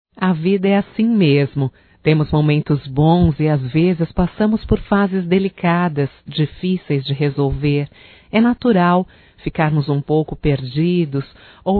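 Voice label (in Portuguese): Agradecimento pelo apoio female, Só Voz; Telemensagens; AGRADECIMENTOS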